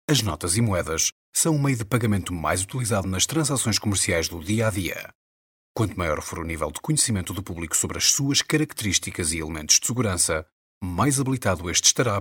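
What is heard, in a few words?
European Portuguese General; GoLocalise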